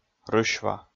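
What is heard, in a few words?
"рысьва", Komi, male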